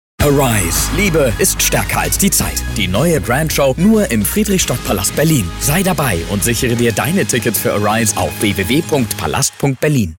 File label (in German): DEUTSCH; ARISE; LOFT VOICES